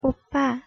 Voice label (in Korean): "오빠", Korean